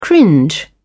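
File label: "cringe", British English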